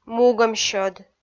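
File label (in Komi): "мугӧмсьӧд", Komi, female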